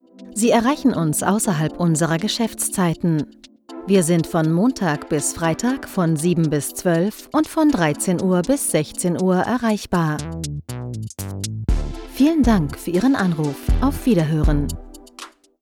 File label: IVR German